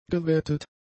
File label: "gewettet", German; adjectives